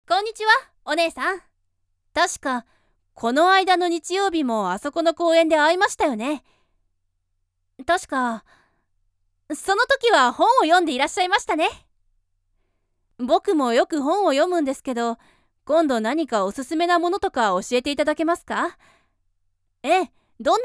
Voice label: boy

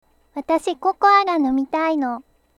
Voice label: kid

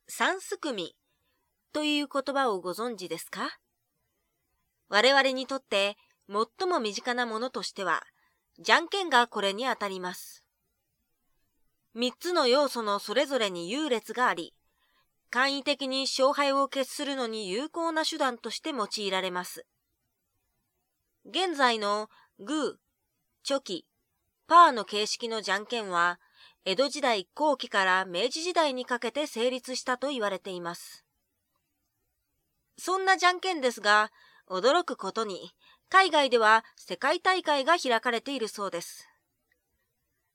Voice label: narration

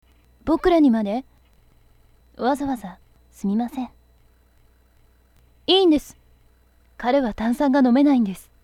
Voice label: boy

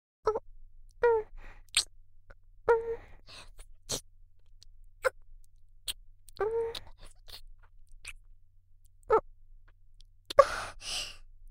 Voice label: adult

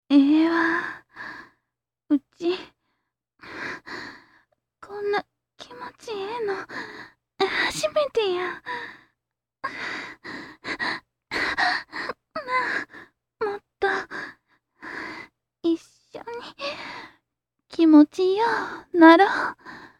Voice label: adult

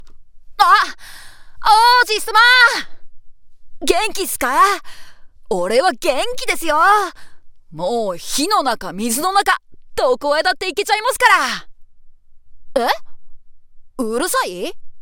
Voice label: boy

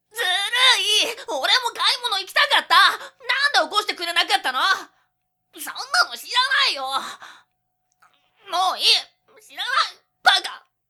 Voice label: boy

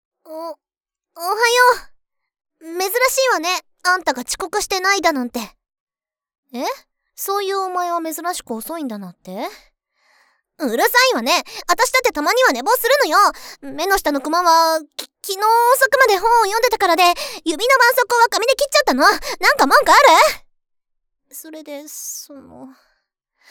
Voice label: voice girl

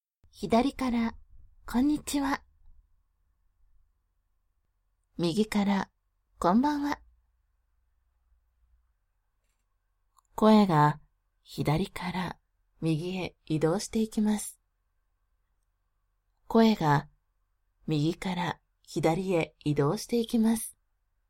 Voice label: binaural

